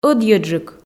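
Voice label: "ӧдйӧджык", Komi, female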